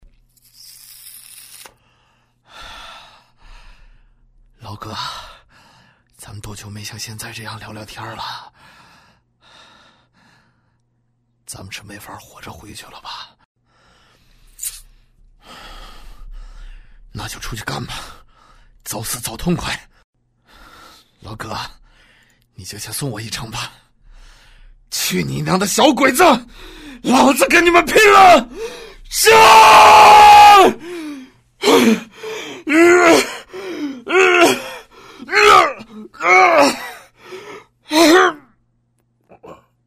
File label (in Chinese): 男 角色 中年; 年轻活力; 素人自然